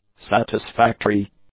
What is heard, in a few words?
"satisfactory", English; adjectives